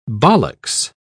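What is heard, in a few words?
"ballocks", British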